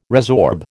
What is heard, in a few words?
"resorb", American